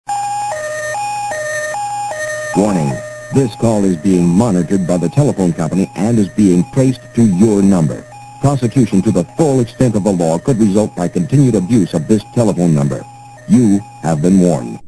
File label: Answering Machines Messages Phone Tap Warning; Mix-Funny-Ringtones